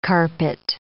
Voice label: "carpet", English